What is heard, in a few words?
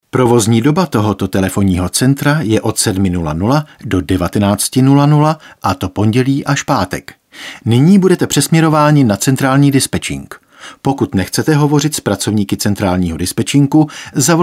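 male Czech IVR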